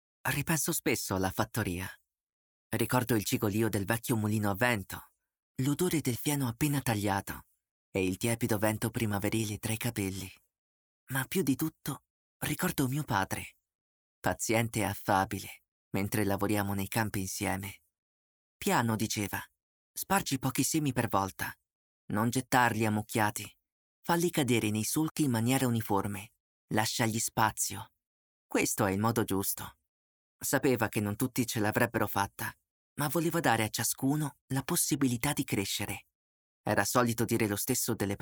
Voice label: male italian voice over talent narrative

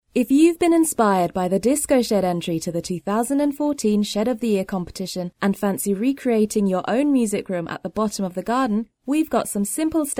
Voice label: female british voice over upbeat; english british

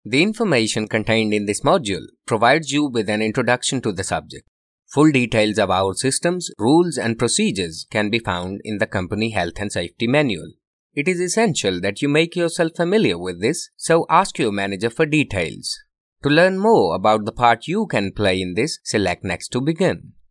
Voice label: male indian voice over narrative

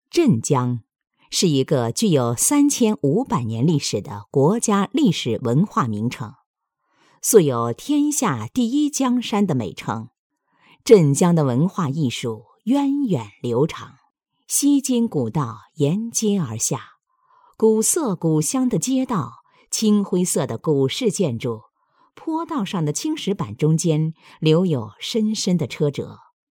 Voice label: female chinese voice over narrative, mandarin

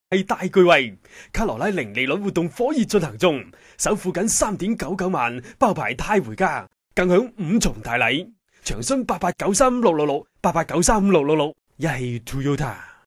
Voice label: male cantonese voice over sales

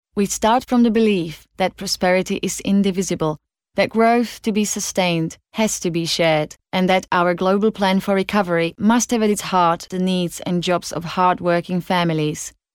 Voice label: female slovakian voice over english

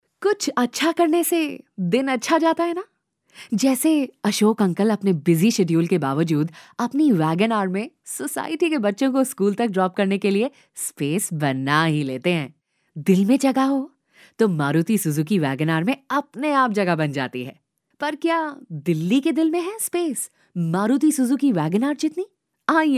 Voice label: female hindi voice over sales

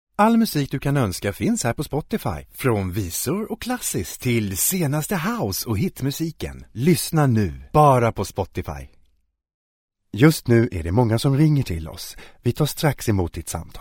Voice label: male swedish voice over talent sales

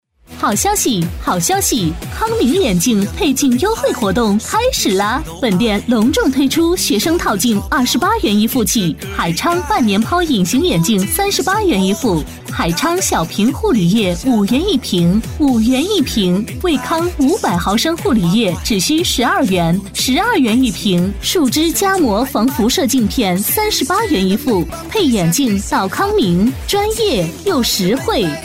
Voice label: female chinese voice over sales, mandarin

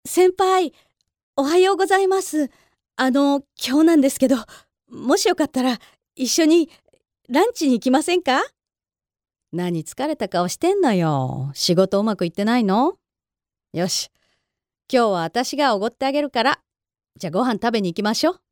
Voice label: female japanese voice over character

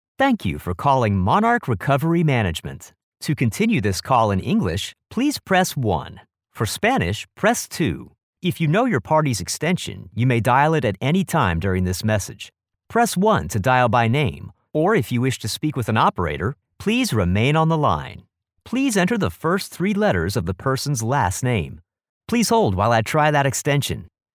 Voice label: male american voice over upbeat; english american

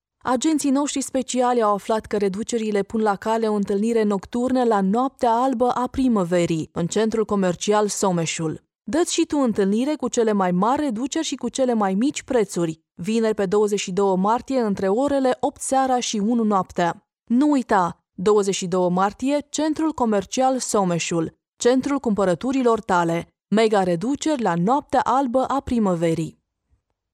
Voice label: female romanian voice over upbeat

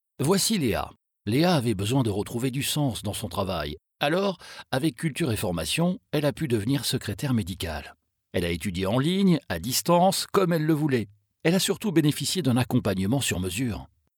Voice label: male french voice over talent narrative; france

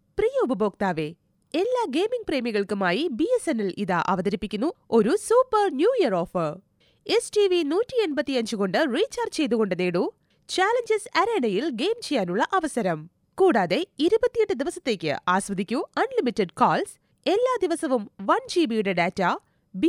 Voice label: female malayalam voice over narrative

